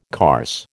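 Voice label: "carse", American English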